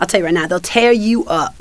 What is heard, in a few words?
female tear up; kingpin loc; lisa specific